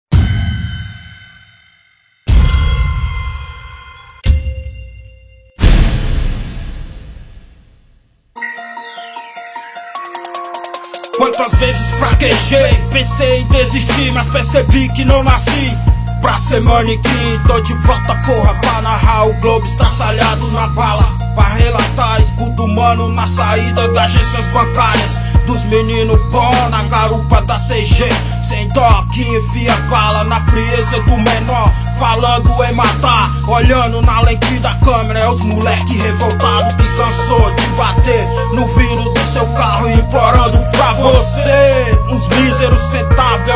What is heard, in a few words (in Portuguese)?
locutor do inferno